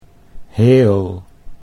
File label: "heel", Dutch; 'whole'